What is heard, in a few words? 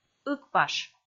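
"ыкпаш", Komi, female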